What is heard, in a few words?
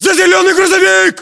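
order cover truck green, battlechatter, Russian; localized english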